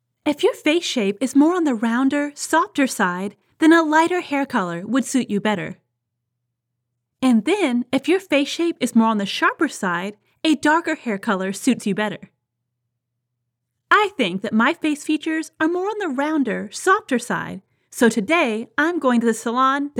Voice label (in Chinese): 外籍女英 外语 美式英语 专题介绍 年轻